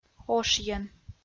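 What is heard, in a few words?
"ошйӧн", Komi, female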